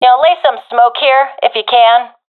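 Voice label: request smoke, professional female; vo; Counter Strike Model Pack; CS:GO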